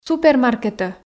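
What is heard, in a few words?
"супермаркетӧ", Komi, female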